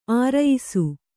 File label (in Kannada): "ಅರೆಯಿಸು", kannada